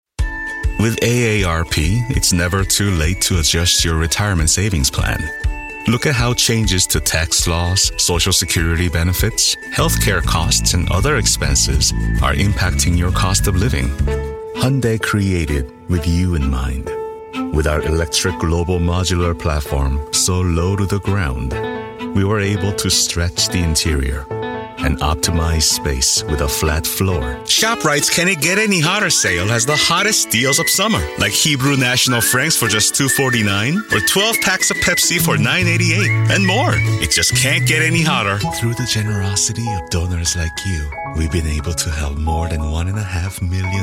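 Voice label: Korean, Accented English; GoLocalise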